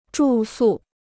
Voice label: "住宿", Chinese